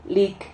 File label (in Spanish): "lic", Silabas